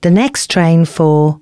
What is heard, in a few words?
announcements, female